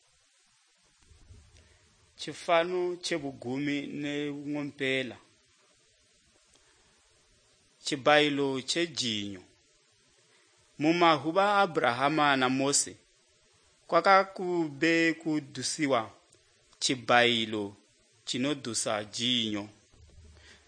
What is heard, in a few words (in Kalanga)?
good news kalanga lilima, drama